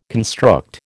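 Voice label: "construct", American English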